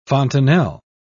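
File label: "fontanelle", British English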